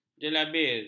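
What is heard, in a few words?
"jelabir", Malay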